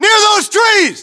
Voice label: landmark near trees, US